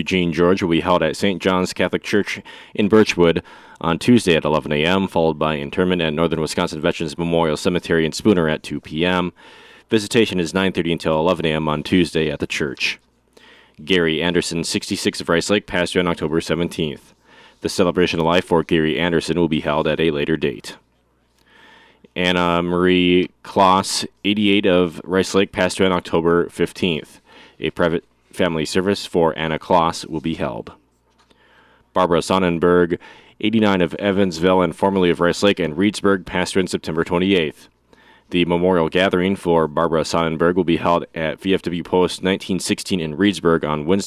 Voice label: Funeral Announcements